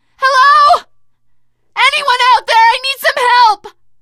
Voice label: call for rescue, teen girl voice; source engine; left 4 dead 2; survivor